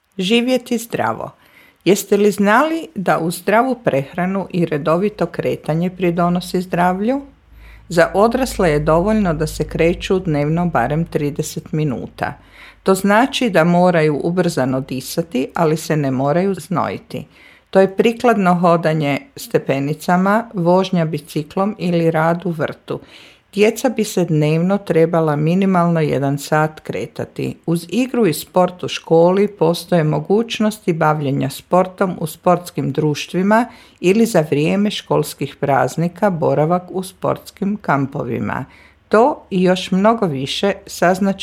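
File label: Croatian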